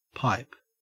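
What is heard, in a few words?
"pipe", Australian English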